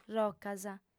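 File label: "rocas", female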